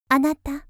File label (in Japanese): 「あなた」, 少女; 綾波系